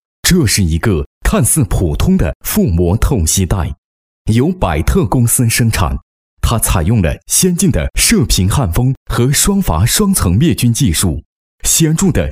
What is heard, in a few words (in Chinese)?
男, 浑厚磁性, 国语男声配音; 百特